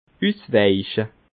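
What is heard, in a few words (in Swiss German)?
"usweiche", Alsatian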